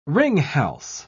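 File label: "ringhals", British English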